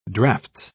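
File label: "draughts", British English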